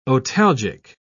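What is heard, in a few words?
"otalgic", British English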